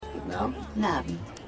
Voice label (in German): Alter Mann Alte Frau Fahrstuhl; Ein Chef zum Verlieben